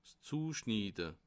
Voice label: "zueschnide", alsace

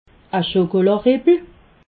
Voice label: "rippschokola", alsace

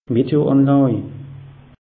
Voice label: "meteo anlaj", alsace

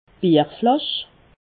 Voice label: "bierflasch", alsace

